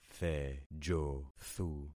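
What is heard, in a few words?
"thejothu", concatenated syllables; Affixing experiment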